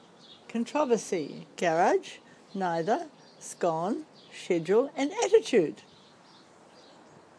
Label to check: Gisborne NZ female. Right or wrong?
Right